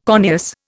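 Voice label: "corneous", Indian English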